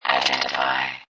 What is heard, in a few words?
Robot Voice "Identify"; Robot Sound Effects; Lynne Music